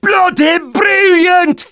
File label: Demoman positive vocalization